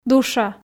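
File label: "душа", Komi, female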